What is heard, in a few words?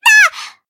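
damaged, Japanese; white nopou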